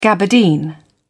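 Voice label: "gabardine", British English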